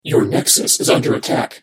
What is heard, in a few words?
cyborg friendly nexus attack, Voices